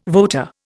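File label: "voter", Indian English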